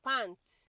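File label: "pant", Nigerian Pidgin, female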